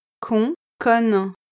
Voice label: "con conne", French

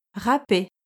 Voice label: "râper", French

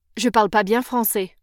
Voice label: "je parle pas bien français", French

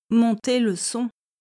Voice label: "monter le son", French

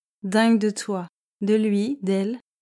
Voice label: "dingue de toi lui elle", French

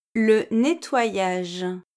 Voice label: "le nettoyage", French

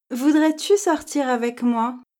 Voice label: "voudrais-tu sortir", French

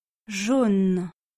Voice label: "jaune", French

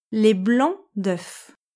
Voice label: "les blancs d'oeuf", French

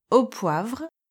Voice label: "au poivre", French

